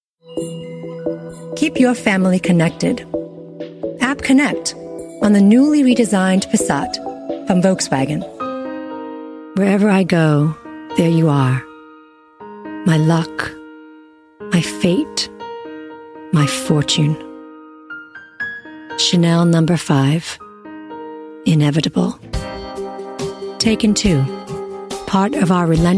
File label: English US